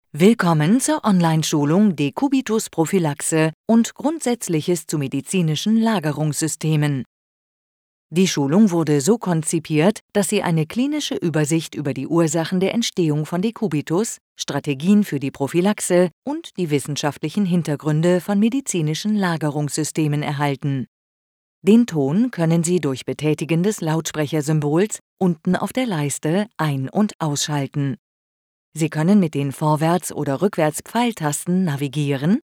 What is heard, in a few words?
german e-learning